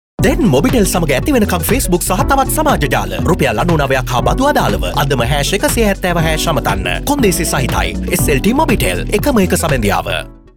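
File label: Sinhala male voice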